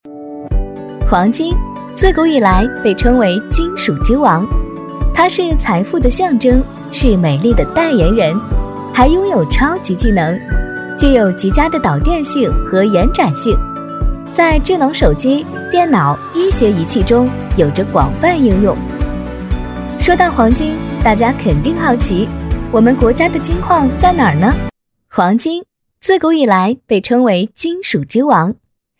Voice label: 女-科普-【轻松语气】

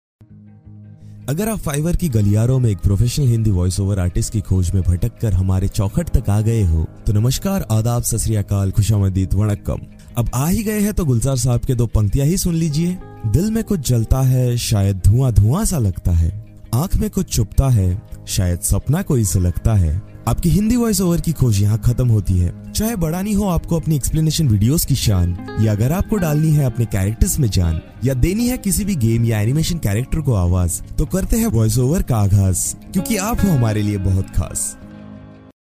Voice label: 印地语男声

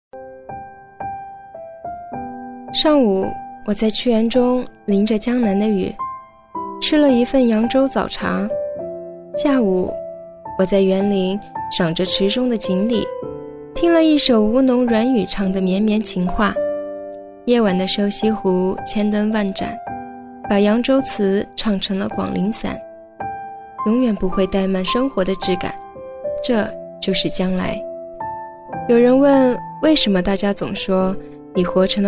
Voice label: 女, 抖音旁白, 年轻自然; 江南雨景; 素人自然

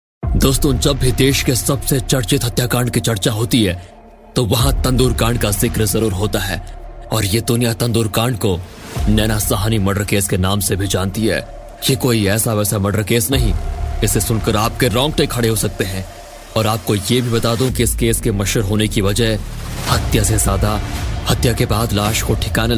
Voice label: 印地语男声

